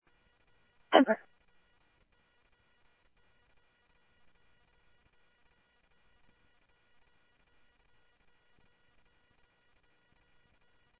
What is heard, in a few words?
Scottsdale Police, scanner audio